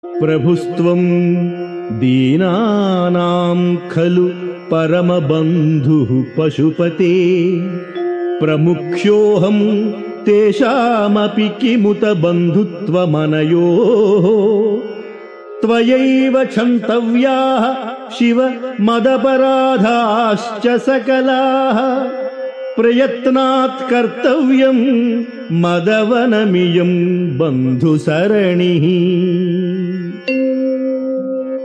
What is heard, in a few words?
Sanskrit; Sri Adi Sankaracharyas Sivananda Lahari; Adi Shankaracharya; श्रीशिवानन्दलहरी १४